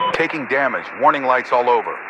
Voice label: Radio pilot Im Hit